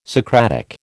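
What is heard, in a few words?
"Socratic", American English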